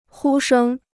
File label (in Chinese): "呼声", Chinese